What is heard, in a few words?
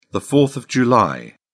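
"fourth of july", English, British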